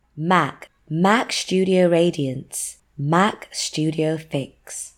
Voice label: Brand Video UK